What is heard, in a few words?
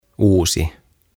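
"Uusi", Finnish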